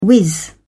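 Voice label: "whizz", British English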